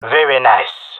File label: compliment, jungle male; Counter Strike Model Pack; CS:GO